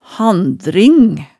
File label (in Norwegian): "handring", hemsedalsmaalet